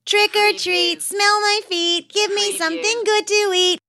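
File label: Kid Says Trick or Treat Smell My Feet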